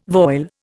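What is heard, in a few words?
"voile", Indian English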